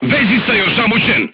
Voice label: voice message machine gunner contused, crew tanks serbian